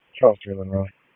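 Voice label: Police Northeast District Dispatch, Raleigh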